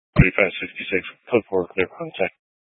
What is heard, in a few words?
Police Department Dispatch; Grand Junction